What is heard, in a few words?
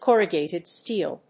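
"corrugated steel", English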